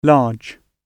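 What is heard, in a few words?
"large" British English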